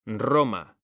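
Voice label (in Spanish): "roma", male; mekanta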